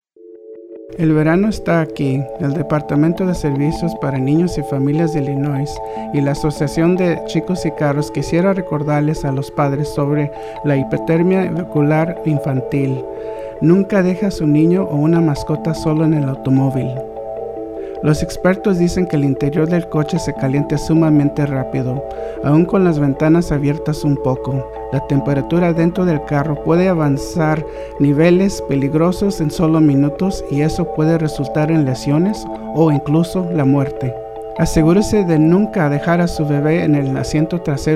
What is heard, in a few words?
SPANISH, public service announcements; hot cars; DCFS